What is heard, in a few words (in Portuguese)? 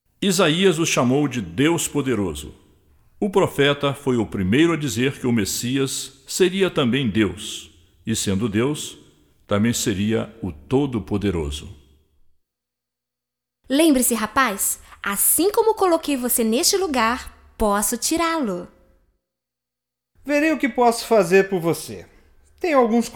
Narração - Diálogo; Maravilhoso Conselheiro; Renascença Teen